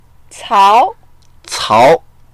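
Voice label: "cao2", Mandarin Chinese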